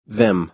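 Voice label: "them", American English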